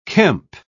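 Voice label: "kemp", British English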